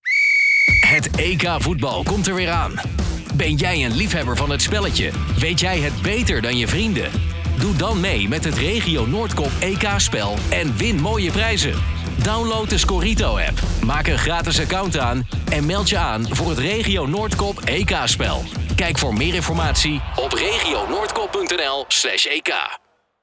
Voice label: Commercial; EK Voetbal Scorito Regio Noorkop